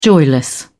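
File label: "joyless", British English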